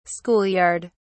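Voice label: "school yard", British English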